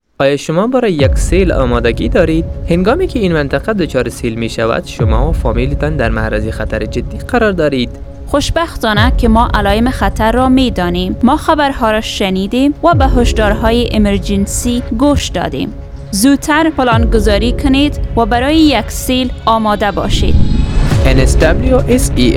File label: radio ad whats your plan dari